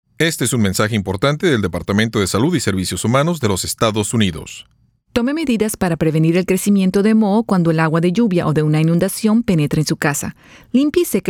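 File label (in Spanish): Spanish PSA; CDC; Preventing Mold; disasters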